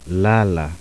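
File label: voiced alveolar approximant, zulu laterals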